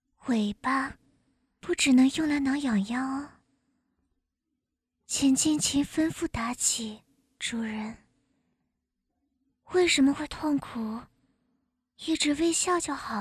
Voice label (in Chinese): 女 模仿; 妲己